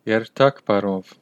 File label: goodbye, armenian western